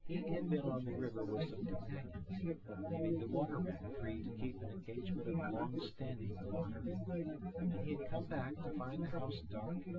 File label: male; SiSEC08; SiSEC underdetermined